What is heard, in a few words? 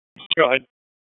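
Police District Dispatch; wake p25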